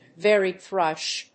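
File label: "varied thrush", English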